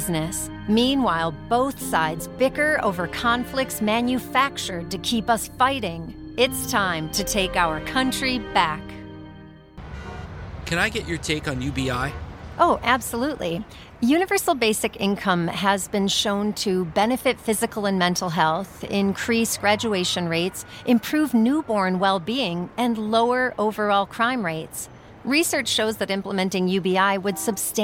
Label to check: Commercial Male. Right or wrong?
Wrong